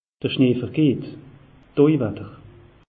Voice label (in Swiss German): "schnee vergeht", alsace; meteo